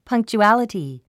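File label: "punctuality", English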